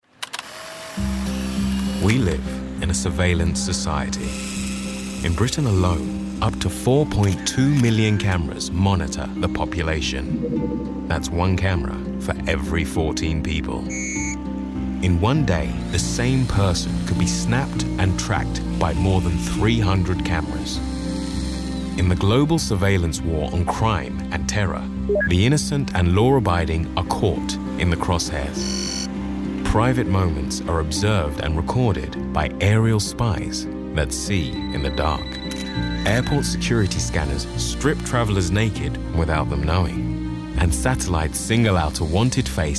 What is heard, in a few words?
Documentary